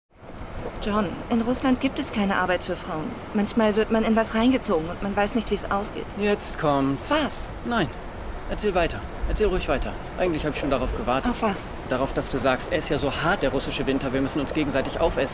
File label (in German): Synchron Streitgespraech